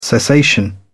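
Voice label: "cessation", British English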